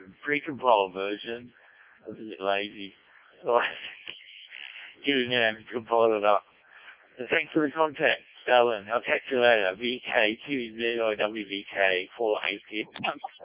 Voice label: decoded speech, 7177KHz